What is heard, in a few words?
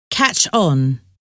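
"catch on", English word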